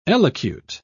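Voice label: "elocute", British English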